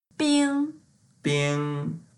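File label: "bing1", Mandarin Chinese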